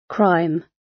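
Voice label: "crime", British; Crime and punishment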